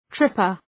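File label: "tripper", English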